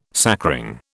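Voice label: "sacring", British English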